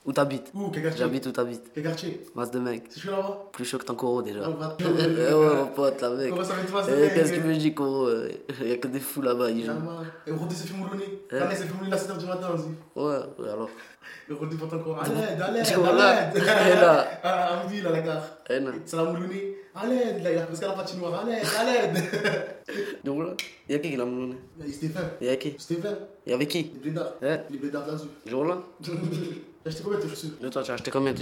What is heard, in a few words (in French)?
echange verbal